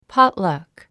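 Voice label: "potluck", English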